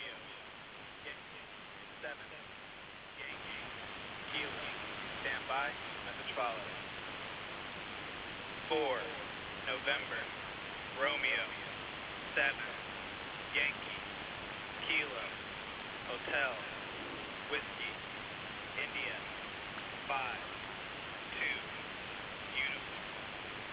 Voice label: usb voice messege; msk 11175 khz